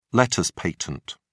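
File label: "letters patent", British English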